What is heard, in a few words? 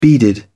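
"beaded", English, British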